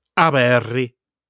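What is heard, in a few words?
"Aberri", Sardinian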